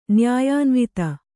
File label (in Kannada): "ನ್ಯಾಯಾನ್ವಿತ", Kannada